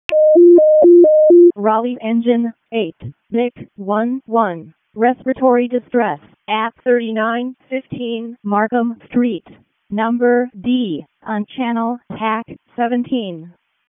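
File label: Wake County EMS ALERT, p25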